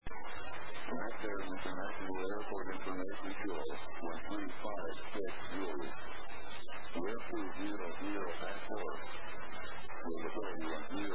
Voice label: ATIS, Japan; las